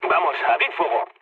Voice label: attack position, mood low, speech; War Thunder

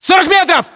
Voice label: threat distance, ru

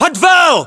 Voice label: location basement generic, battlechatter ru; localized english

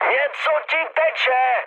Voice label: tank damaged, speech cze, mood high; War Thunder

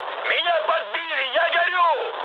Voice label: on fire, mood high, speech ru; War Thunder

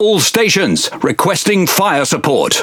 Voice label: Pirate Speech Quick Commands Enemy Ship; World Of Warships

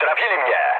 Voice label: aircraft destroyed mood low, speech pol

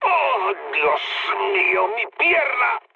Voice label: damaged pilot mood low; speech; War Thunder

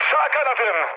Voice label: right wing, mood high, speech tur; War Thunder

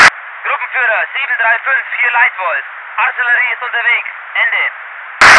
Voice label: voice ger infantry

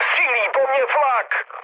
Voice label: damaged aa, speech cze, mood low; War Thunder

